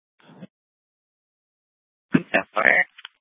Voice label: Sheriffs Office, scanner